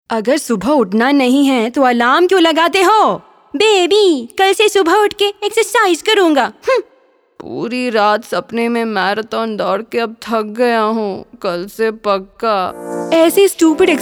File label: Hindi; Diet Muesli; Breakfast Cereal